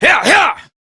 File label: Horse Sprint Adult; Duviri